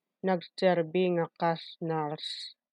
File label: Ilocano